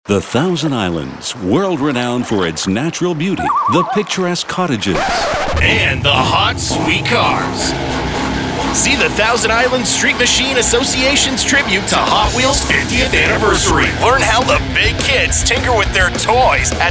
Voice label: Radio Ad Car Show